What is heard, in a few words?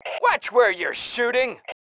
watch it, male